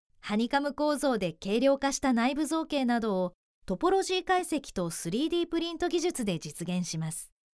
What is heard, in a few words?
japanese corporate videos